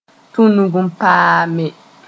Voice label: "tũnug n paame", moore

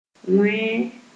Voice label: "wẽe", moore

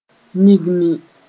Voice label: "yĩgmi", Mooré

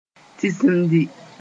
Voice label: "tĩsimdi", Moore; sneeze

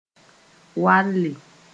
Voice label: "walle", Mooré

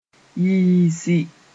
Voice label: "yiisi", Mooré

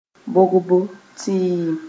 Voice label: "bogbo tɩɩm", Mooré; vaccination

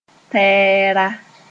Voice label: "tɛɛra", Moore

